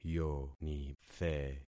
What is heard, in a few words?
"yonithe", concat syllables; Affixing experiment